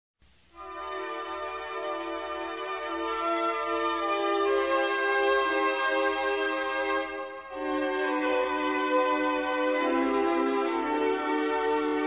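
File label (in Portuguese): Telemensagens, Feminino; HOMEM P-AMIGO-F; Amizade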